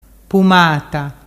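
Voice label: "pumata", Ligurian dialect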